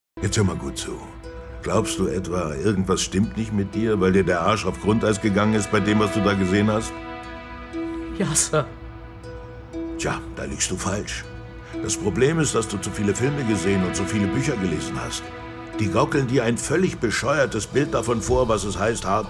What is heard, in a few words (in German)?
Hoerspiel; Blutlinie; LOFT VOICES